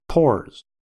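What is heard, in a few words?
"pores", US English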